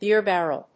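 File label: "BEER BARREL", English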